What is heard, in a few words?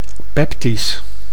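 "peptisch", Dutch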